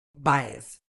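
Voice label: "bias", British English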